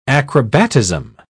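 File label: "acrobatism", British English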